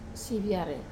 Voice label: "viare", Wamesa; discounted